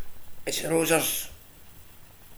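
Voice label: "rozzer", slang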